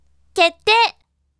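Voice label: voice, bright; kettei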